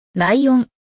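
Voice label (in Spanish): "Leon", español; Relaciona Palabras en Ingles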